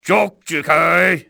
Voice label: get him, cantonese male; XBOX; enemy